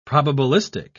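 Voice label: "probabilistic", British English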